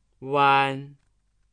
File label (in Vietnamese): "uan", tiếng trung; nguyên âm